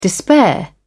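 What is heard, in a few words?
"despair", British English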